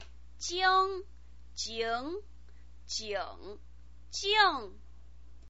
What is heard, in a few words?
"jing", Chinese pinyin